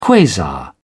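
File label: "quasar", British English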